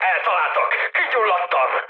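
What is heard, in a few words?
Hungarian on fire mood high, speech; War Thunder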